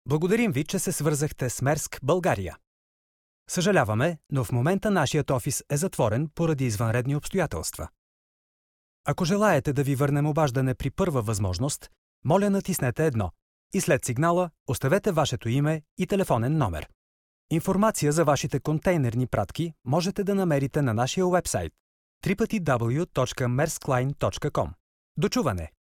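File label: bulgarian male ivr, voice demos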